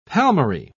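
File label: "palmary", British English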